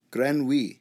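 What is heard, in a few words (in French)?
"grenouille", French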